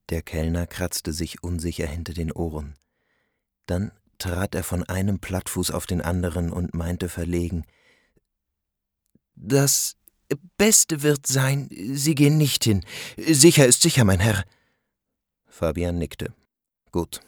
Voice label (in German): Hoerspiel; Fabian (E.Kaestner)